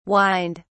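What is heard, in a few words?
"whined", British English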